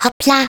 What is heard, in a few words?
turret collide, de